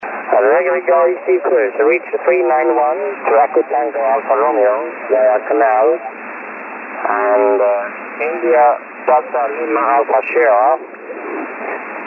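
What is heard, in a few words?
HF Radio; Iceland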